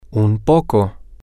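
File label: a Little, Spanish, male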